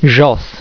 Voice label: "jos", romanian